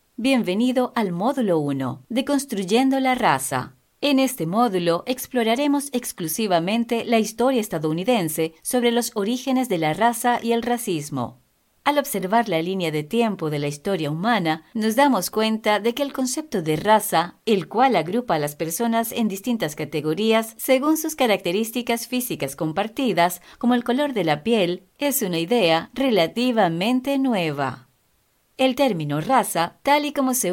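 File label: Spanish female; 西班牙语女; 外语; 小语种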